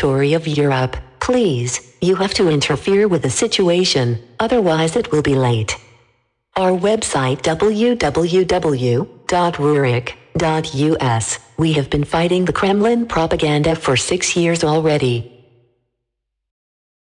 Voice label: Radio Announcement